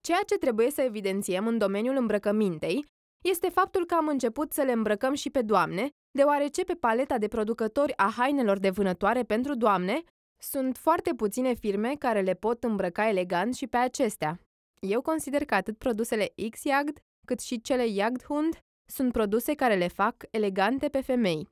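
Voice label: romanian female narration; voice demos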